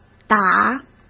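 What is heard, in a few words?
"打", Mandarin Chinese; chinese flashcards; hsk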